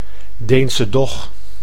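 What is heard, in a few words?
"Deense dog", Dutch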